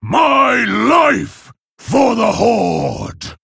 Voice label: Male Orc; Hero; Varok; Anduin; Mission8 Exchange E